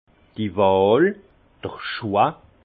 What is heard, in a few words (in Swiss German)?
"wahl", alsace; vin; choix